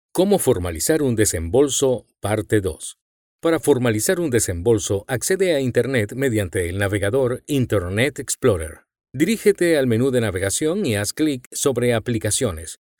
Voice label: Spanish Latin

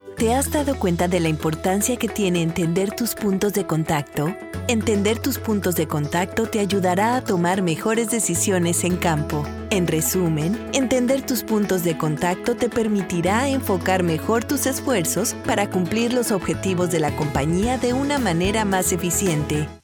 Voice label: Spanish Latin

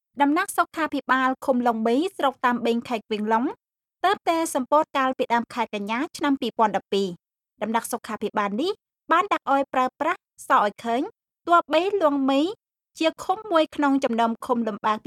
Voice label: Khmer Native

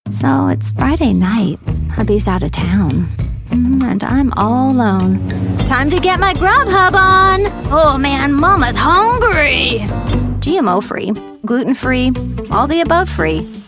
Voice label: English Neutral

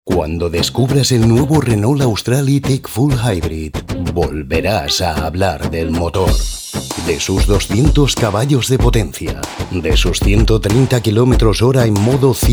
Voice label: Spanish Spain

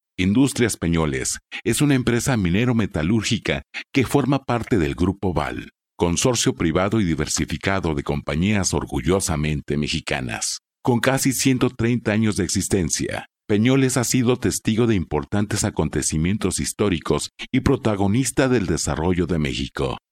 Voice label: Spanish Latin

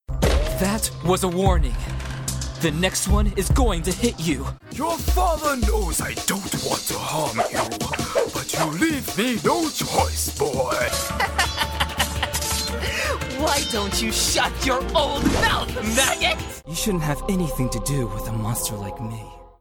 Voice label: English USA

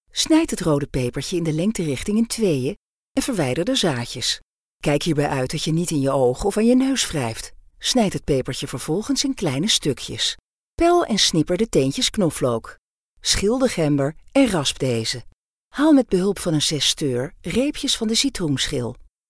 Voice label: Dutch Native

